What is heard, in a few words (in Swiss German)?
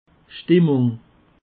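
"schtimmung", Alsatian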